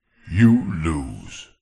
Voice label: multimedia male voice processed says you lose